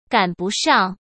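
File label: "赶不上", Mandarin Chinese; chinese flashcards; hsk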